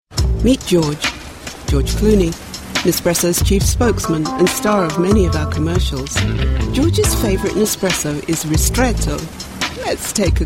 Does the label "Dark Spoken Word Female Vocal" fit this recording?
No